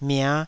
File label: "mia5", taiwanese